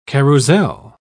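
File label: "carrousel", English, British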